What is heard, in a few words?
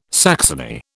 "saxony", British